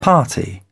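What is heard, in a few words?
"party", British English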